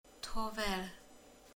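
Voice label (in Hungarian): "mos", Hungarian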